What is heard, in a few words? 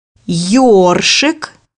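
"brush", Russian; bukvar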